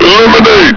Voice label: "eliminate", combine soldier; hl2